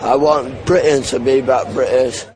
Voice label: british; Britain British